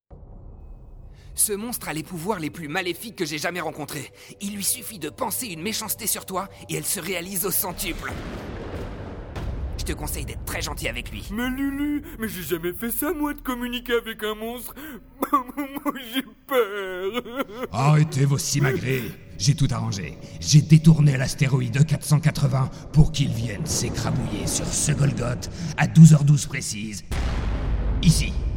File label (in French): doublage Animation 3 voix